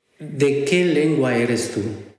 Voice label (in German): spanisch ecouador